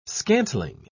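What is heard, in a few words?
"scantling", British English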